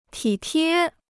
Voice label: "体贴", Chinese